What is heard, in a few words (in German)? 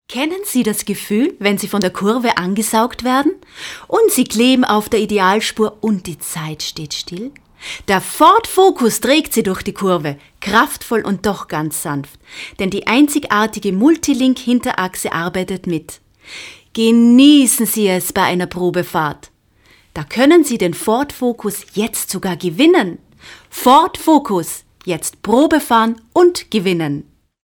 Werbung; Ford Focus; Sprecherin für Werbung